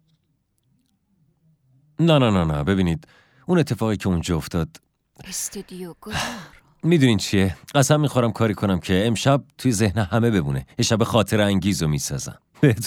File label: dub